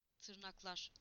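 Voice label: "nails (on fingers)", Turkish; PictureDictionary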